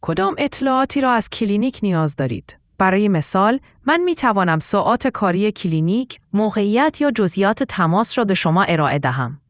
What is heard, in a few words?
question persian